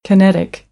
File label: "kinetic", American; AmericanBritish